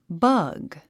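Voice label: "bug", English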